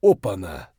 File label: mutter, voice; Escape from Tarkov